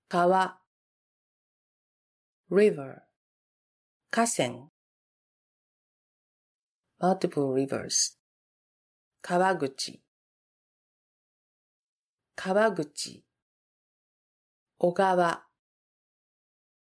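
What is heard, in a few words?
river, japanese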